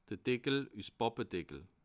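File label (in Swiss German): "deckel", Bas Rhin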